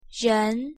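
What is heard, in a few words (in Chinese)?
"人", Chinese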